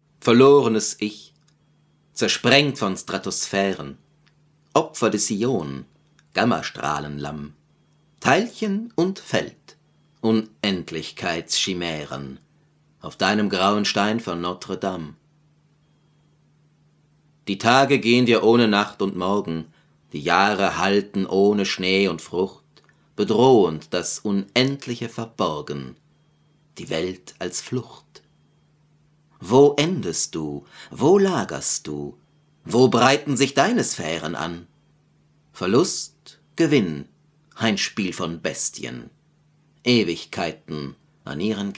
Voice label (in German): sprechprobe gedicht; benn gedicht